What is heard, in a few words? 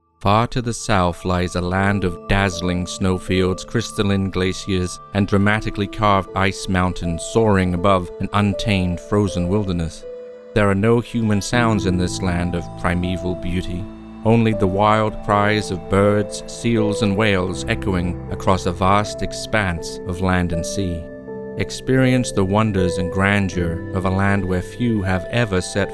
Antarctica Documentary